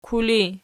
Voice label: "kuli", archi